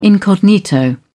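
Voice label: "incognito", British English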